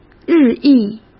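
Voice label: "日益", Mandarin Chinese; chinese flashcards; hsk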